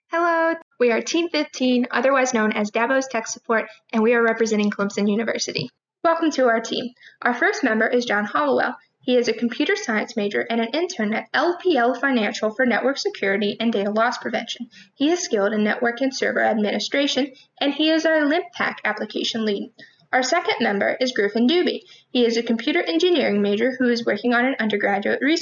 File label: Voiceover; ClusterComp 2020